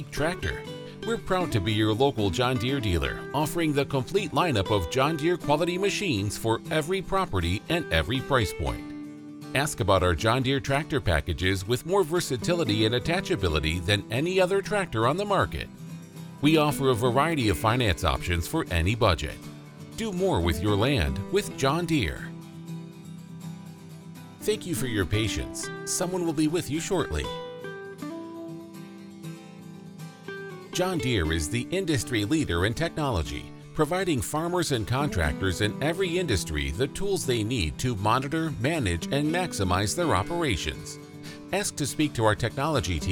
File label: on hold message, commercial voiceover